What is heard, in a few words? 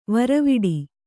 "ವೀರವಟ್ಟ", Kannada